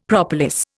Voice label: "propolis", Indian; Dictionary